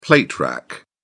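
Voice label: "plate rack", British English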